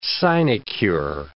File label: "sinecure", English